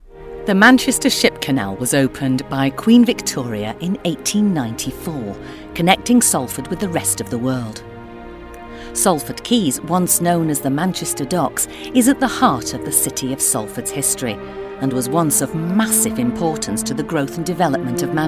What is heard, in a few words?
Mancunian Accent